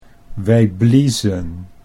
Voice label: "wij bliezen", Dutch